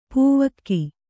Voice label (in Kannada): "ಪೌವಗೆ", Kannada